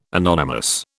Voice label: "anonymous", British

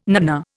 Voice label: "nanna", Indian

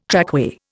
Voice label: "trackway", Indian

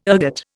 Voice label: "ergot", Indian

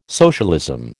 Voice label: "socialism", American

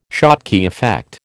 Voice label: "Schottky effect", American

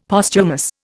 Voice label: "Posthumous", Indian; Dictionary

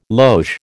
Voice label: "loge", American

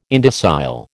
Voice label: "indocile", American

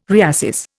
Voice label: "reassess", Indian

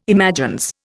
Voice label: "imagines", Indian

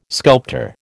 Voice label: "sculptor", American

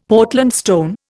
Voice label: "Portland stone", Indian; Dictionary

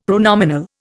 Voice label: "pronominal", Indian; Dictionary

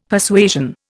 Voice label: "Persuasion", Indian; Dictionary

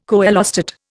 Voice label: "coelostat", Indian

